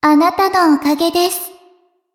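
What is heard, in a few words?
level up, Japanese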